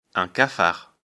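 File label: "cafard", French; afrikalan; lecture fr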